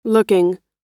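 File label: "looking", US English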